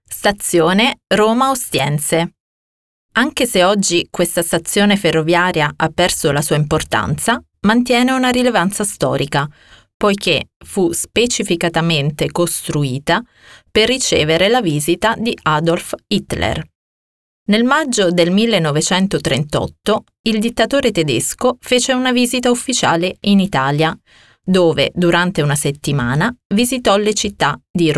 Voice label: italian female narration; voice demos